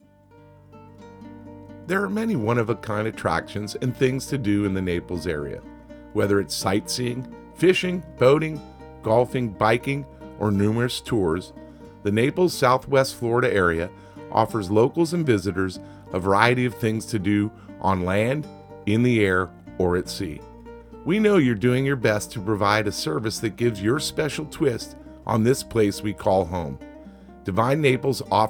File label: text voice with music; tours and attractions